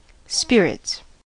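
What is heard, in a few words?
"spirits", US English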